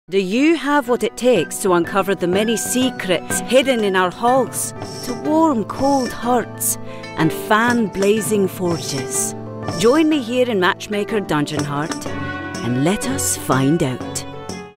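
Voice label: UK Scottish Female Adult; australian voice over; video game voice actor; MatchmakerDH; Konstantina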